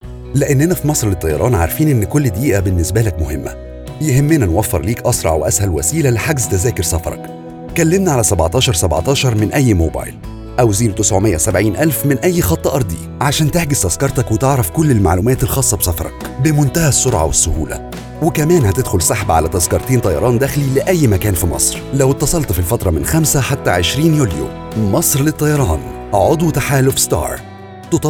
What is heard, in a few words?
Radio Ad; Egypt Air